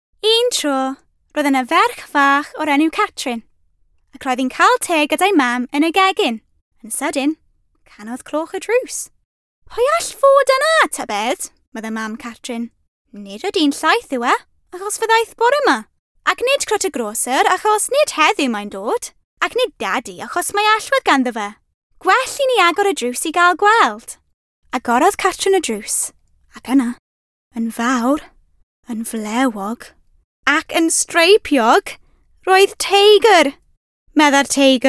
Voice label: Welsh